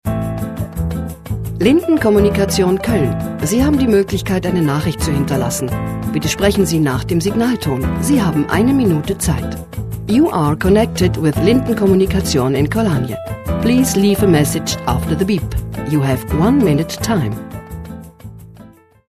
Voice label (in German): anrufbeantworter